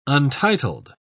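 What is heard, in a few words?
"untitled", British English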